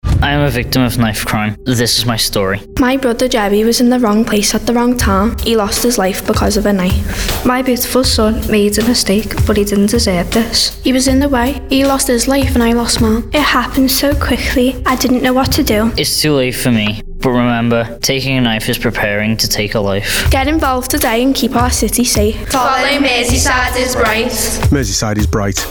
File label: ADVERT; Kirkby